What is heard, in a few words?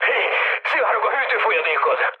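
Hungarian damaged water mood high, speech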